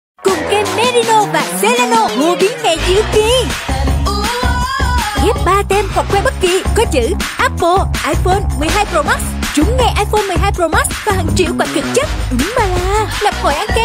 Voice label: Vietnamese Female